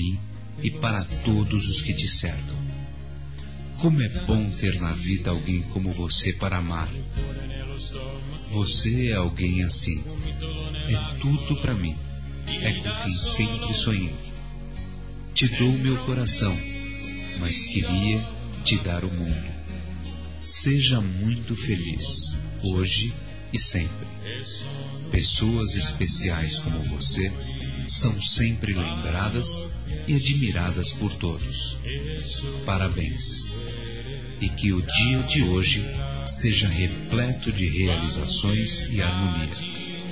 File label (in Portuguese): ANIV. ROMANTICO M; Aniversários; masc